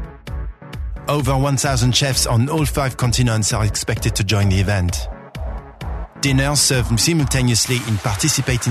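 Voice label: French, male, English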